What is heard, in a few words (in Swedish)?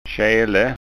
"kjele", skanska